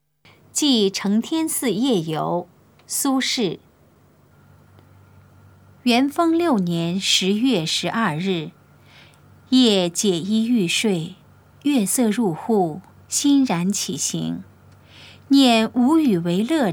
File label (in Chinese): putonghua; 記承天寺夜遊; 普通話